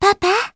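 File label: story, Japanese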